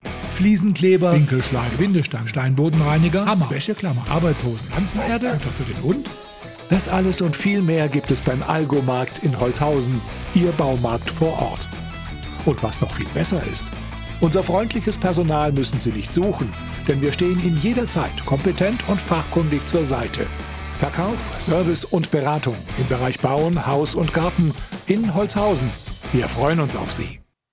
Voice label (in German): Werbung Baumarkt